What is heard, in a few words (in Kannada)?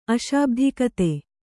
"ಅಶಾಬ್ಧಿಕತೆ", kannada